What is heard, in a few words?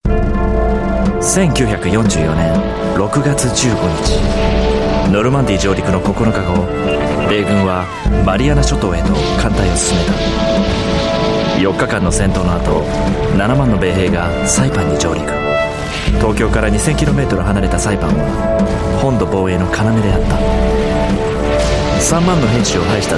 War Promo Japanese, Japanese Male